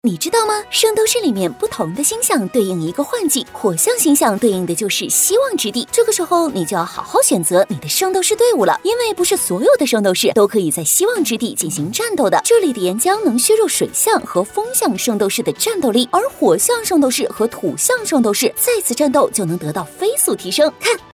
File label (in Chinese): 女 飞碟; 圣斗士